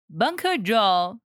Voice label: banker add